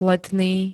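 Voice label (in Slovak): "letný", Slovak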